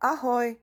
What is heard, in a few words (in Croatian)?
češki; POP UP Zagreb